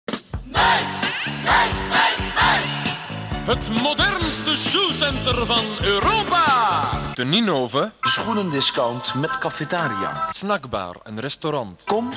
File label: commercial